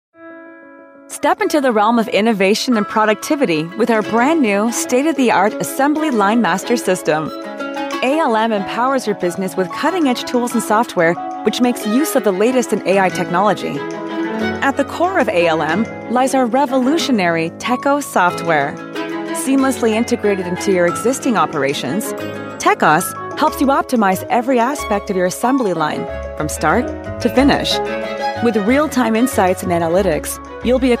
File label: canadian female voiceover corporate